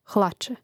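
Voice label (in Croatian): "hlače", Hrvatski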